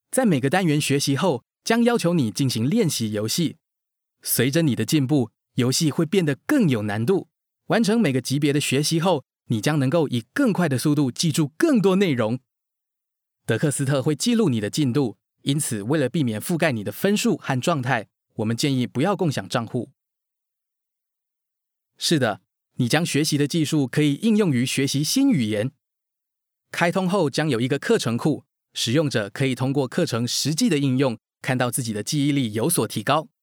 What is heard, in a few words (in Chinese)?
國語 男 旁白